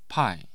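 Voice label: "pai4", Mandarin Chinese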